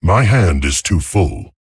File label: Male Tauren, ERROR HAND FULL